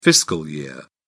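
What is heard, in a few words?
"fiscal year", British English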